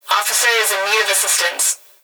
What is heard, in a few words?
Human Female Voice Police Dispatch; Emergency